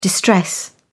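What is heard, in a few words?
"distress", British English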